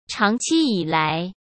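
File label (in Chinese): "长期以来", Mandarin Chinese; hsk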